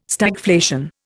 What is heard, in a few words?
"Stagflation", Indian